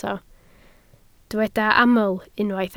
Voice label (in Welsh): "aml", Welsh